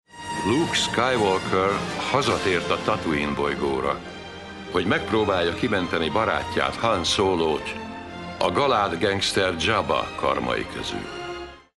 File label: narrator; rotj